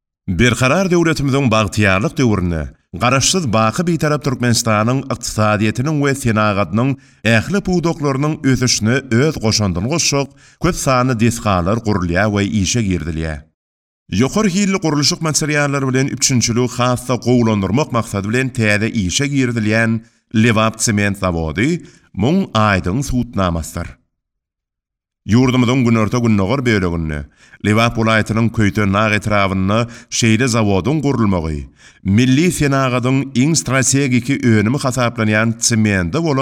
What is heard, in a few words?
turkmen male